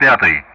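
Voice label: Speech Russian; DCS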